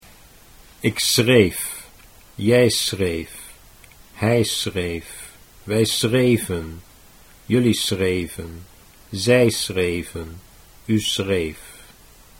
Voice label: "ik schreef", Dutch